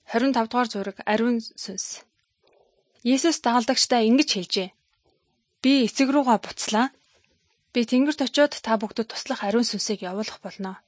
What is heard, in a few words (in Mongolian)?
good news mongolian